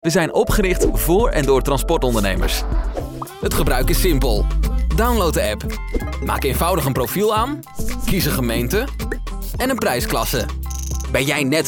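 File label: Dutch, male, corporate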